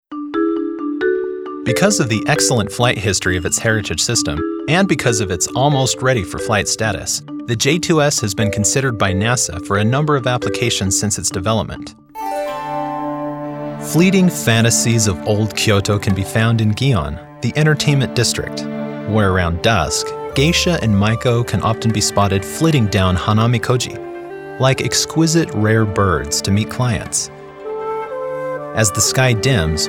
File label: Narration Voiceover